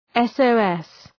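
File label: "SOS", English; {,esəʋ’es}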